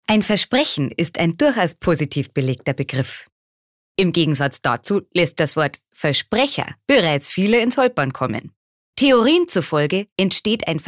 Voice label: Versprecher Bayrisch

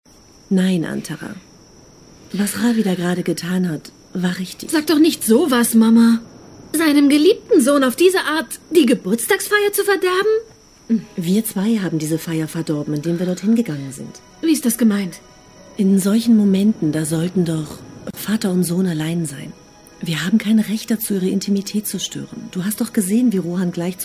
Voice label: Schauspiel Variabel

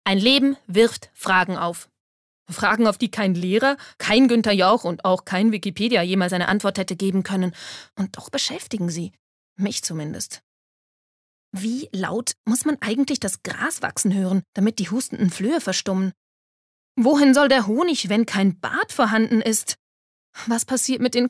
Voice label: Schauspiel Energisch

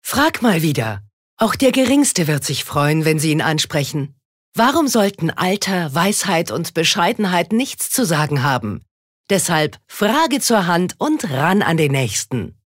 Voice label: Werbung Dynamisch

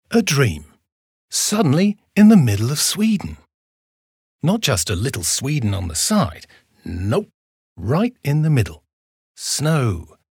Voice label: Eng UK Schauspiel Lebendig

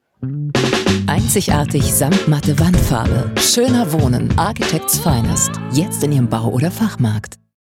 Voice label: Freundlich

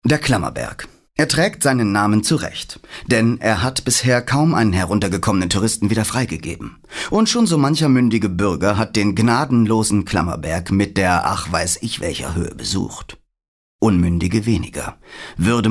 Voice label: Kommentar Dynamisch

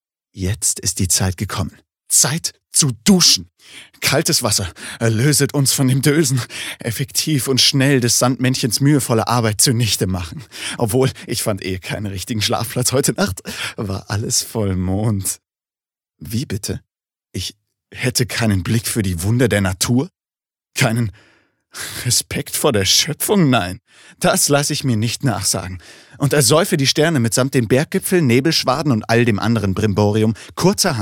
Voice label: Schauspiel Variabel

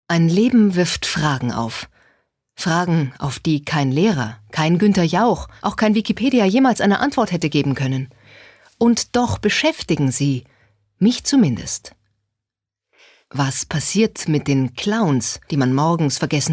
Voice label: Schauspiel Dynamisch

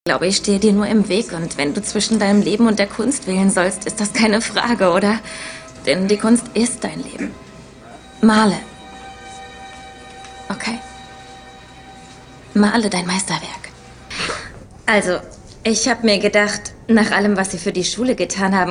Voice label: Schauspiel Dynamisch

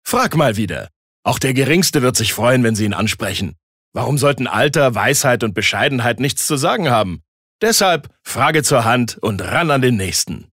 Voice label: Werbung Freundlich

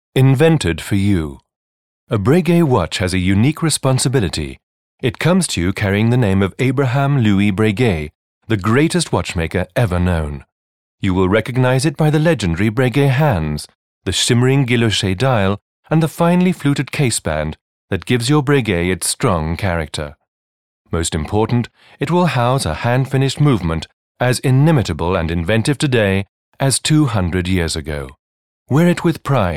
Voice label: Kommentar Neutral

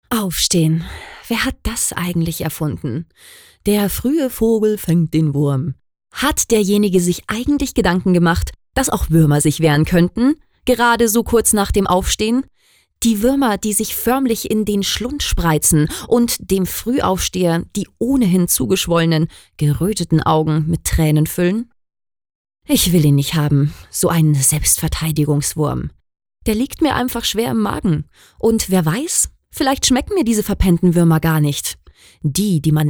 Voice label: Energisch; Der Fruehe Vogel

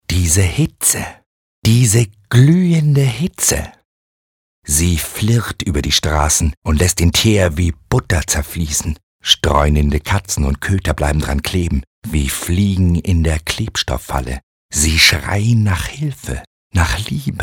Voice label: Schauspiel Lebendig

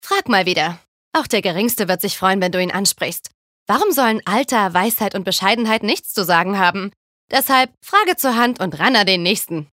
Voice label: Werbung Cool

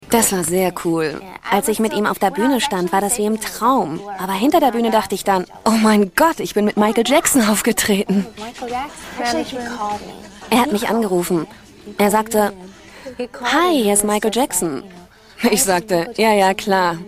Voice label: Freundlich

